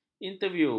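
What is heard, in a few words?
"interviu", Malay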